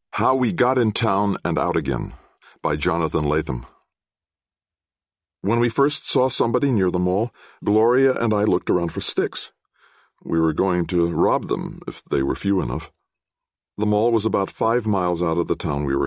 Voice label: Audiobook sample; Wastelands